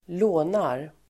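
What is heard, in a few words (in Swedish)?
"lånar", Swedish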